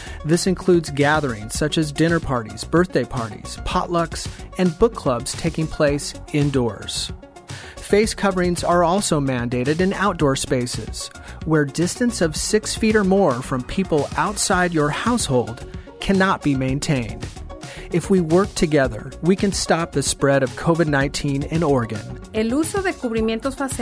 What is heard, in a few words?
Statewide Rules English Spanish PSA